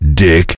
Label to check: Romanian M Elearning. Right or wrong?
Wrong